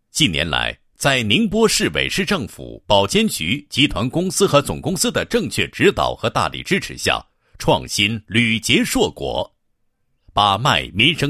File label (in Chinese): 男 保险企业宣传 宣传配音; 中国人民财产保险股份有限公司